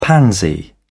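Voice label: "pansy", British English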